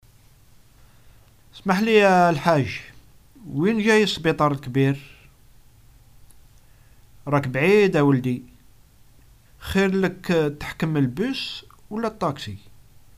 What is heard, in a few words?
Algerian Arabic dialogue directions